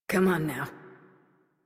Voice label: Horse Start Adult; Warframe; Duviri